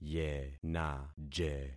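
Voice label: "yenaje", concatenated syllables; Affixing experiment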